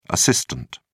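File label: "asst", British English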